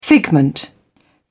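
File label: "figment", English, British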